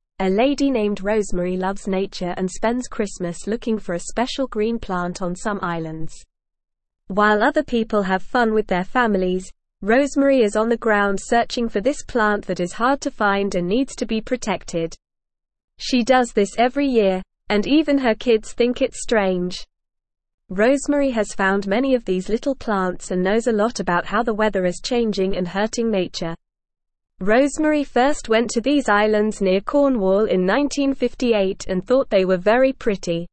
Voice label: English NORMAL Reading; Rosemarys Love for Plants and Helping the Isles; English Newsroom